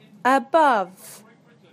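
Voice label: "above", British English; PictureDictionary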